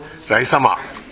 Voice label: "raisama", minamiyamagata